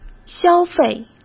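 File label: "消费", Mandarin Chinese; hsk; chinese-flashcards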